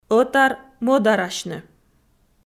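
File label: "ӧтар-мӧдарасьны" Komi, female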